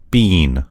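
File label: "bean", American English; gcompris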